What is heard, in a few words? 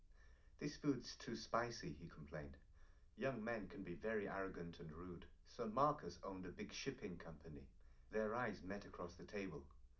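male, live recording, 250 ms, 1 m; SiSEC11; underdetermined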